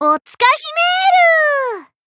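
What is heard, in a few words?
Voice special attack Japanese; ciel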